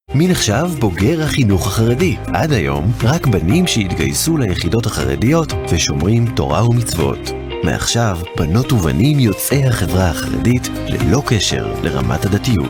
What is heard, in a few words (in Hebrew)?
Hebrew others